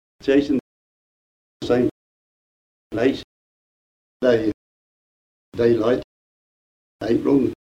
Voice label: kent face, accents; stoke